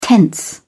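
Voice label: "tense", British English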